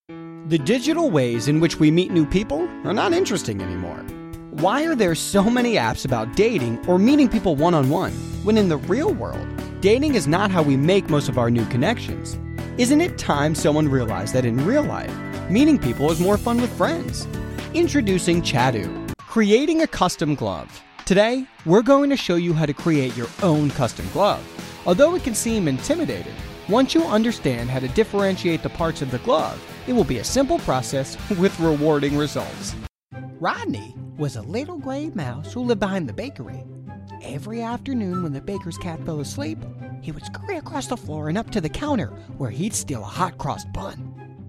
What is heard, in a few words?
USA